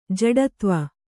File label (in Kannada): "ಜಡೆದೇವ", Kannada